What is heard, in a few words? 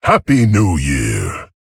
Male Tauren HAPPY NEW YEAR